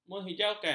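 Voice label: "menghijaukan", Malay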